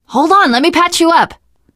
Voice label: heal other, teen girl; voice; source engine; left 4 dead 2; survivor